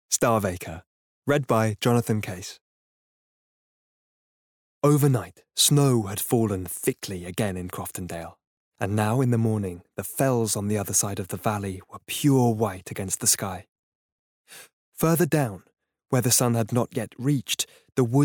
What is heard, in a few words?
Bookread